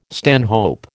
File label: "stanhope", American